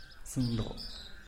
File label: "zïndoo", miel de castilla, tlacochahuaya